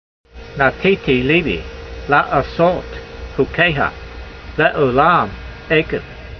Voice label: voice, hebrew language; Psalm 119:112; nateetee leebee la'a'sot hukeyha le'o'lam ay'kev